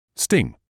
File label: "sting", English